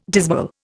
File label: "dismal", Indian